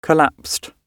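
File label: "collapsed", British English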